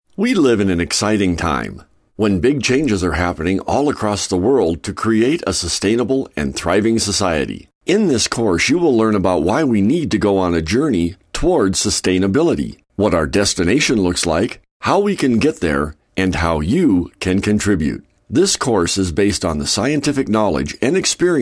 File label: e-learning